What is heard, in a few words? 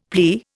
"plea", Indian; Dictionary